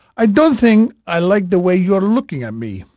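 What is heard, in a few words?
Portuguese male, voice; Pirates Of The Caribbean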